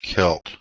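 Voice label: "kelt", Proto-Indo-European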